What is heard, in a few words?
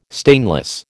"stainless", American